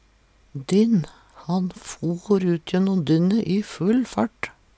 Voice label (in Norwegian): "dynn", Numedalsmål